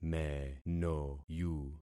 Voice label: "menoyu", concat syllables; Affixing experiment